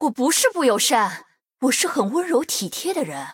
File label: moving zh